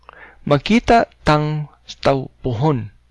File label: goodbye, cebuano